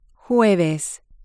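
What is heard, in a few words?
"jueves", Spanish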